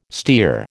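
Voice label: "stere", American English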